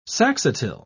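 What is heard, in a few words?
"saxatile", English, British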